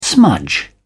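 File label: "smudge", British English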